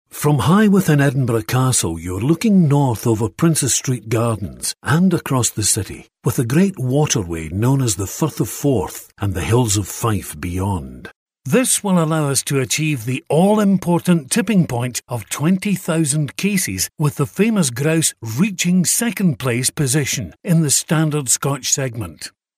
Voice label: corporate narration